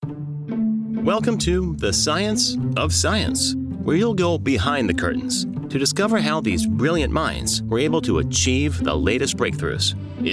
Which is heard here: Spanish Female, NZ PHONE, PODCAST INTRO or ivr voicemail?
PODCAST INTRO